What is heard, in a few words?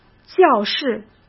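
"教室", Mandarin Chinese; chinese flashcards; hsk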